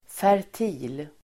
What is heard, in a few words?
"fertil", Swedish